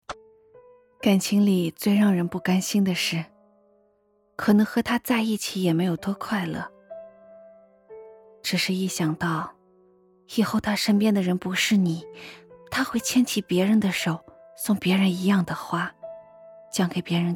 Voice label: 女配 情感

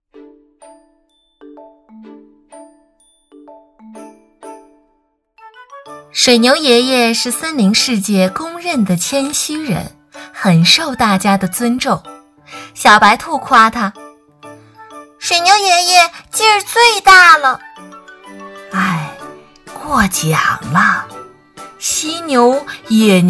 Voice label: 童话故事; 水牛爷爷

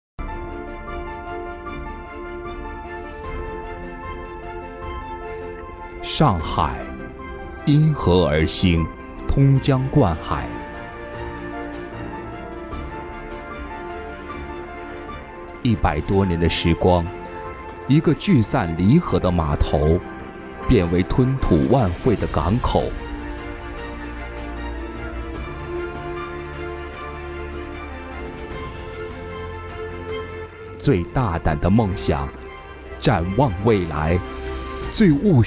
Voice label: 宣传片; 这就是上海

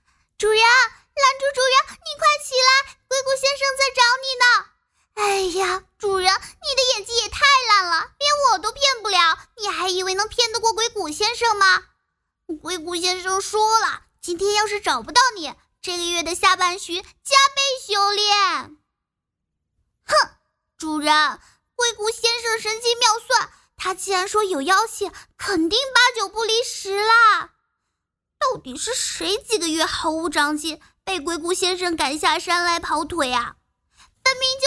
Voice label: 元气少女

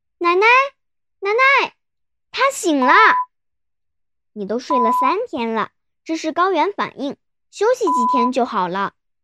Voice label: 女童

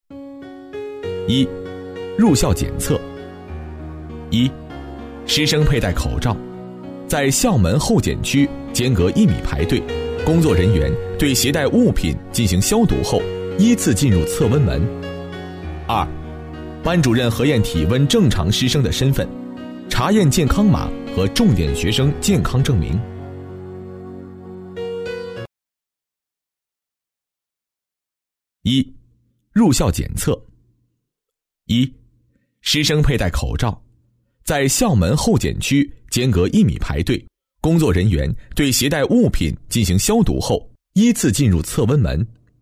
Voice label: 项目解说 突发疫情应急演练视频旁白; 紫阳中学

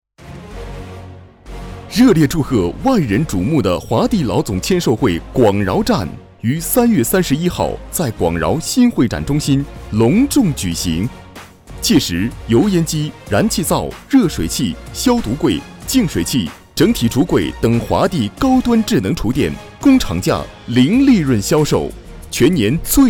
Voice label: 促销广告

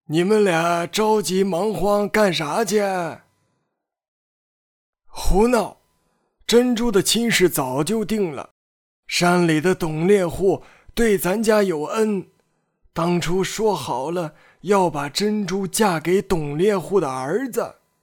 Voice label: 老爹老年

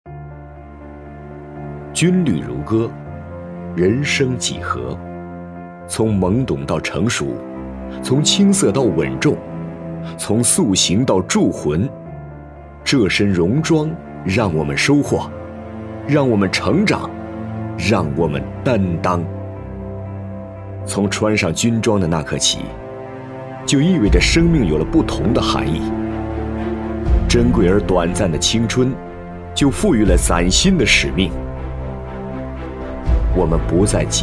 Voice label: 讲述军旅男

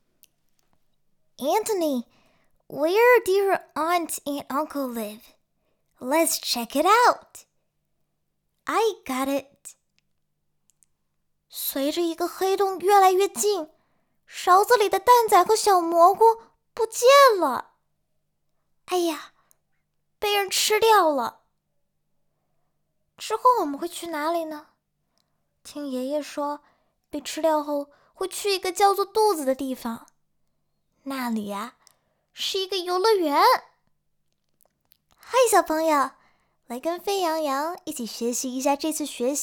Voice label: 童声中英双语

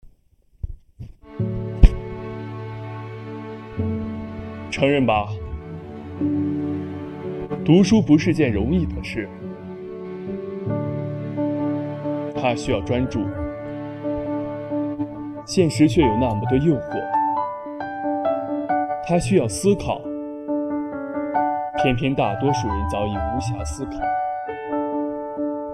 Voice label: 旁白

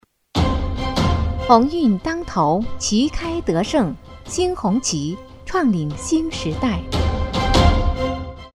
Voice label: 广告配音音效; 红旗

